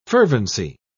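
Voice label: "fervency", British English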